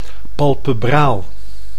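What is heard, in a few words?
"palpebraal", Dutch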